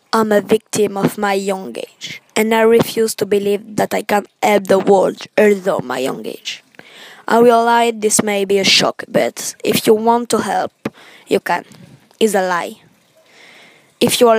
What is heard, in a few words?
poem english